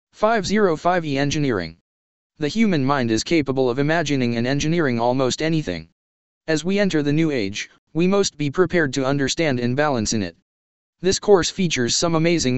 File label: text 2 voice